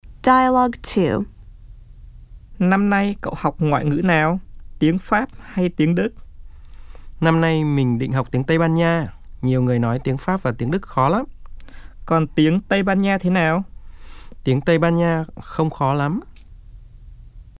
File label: Dialog